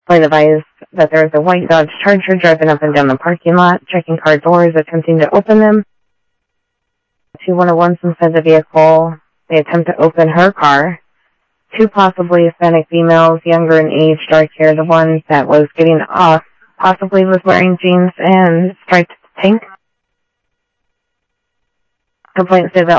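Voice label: Sheriff East County, scanner audio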